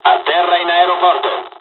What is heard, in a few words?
airfield land, dialogs italian; War Thunder